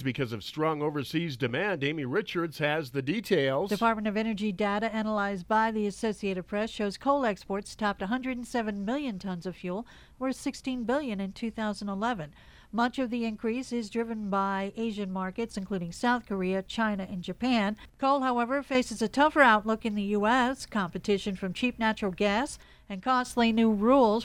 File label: wyoming radio news